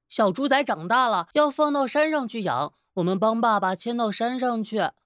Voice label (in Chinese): 角色男小屁孩